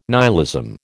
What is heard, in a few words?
"nihilism", American